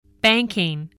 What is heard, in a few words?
"banking", English